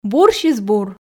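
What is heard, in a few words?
"бурсьыс-бур", Komi, female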